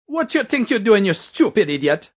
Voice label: What You Doin Idiot, Attitude, Male